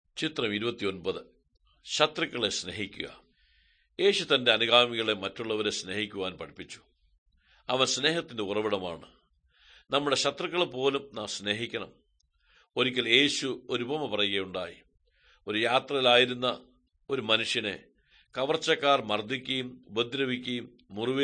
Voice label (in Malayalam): good news malayalam, drama